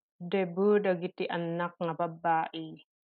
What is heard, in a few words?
Ilocano